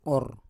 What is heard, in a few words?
"or", Roon